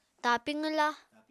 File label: "tapi qwwla", apatani